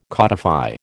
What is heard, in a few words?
"codify", American